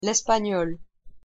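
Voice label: "l'espagnol", French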